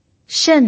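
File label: "shen" Mandarin Chinese, fourth tone, pinyin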